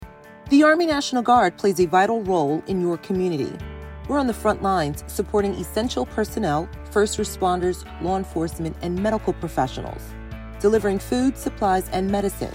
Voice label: Radio English; MN Always Ready